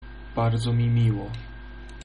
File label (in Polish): meet, pl